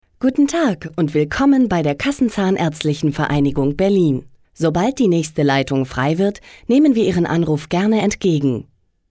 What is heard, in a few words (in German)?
deutsch, Telefonansagen, weiblich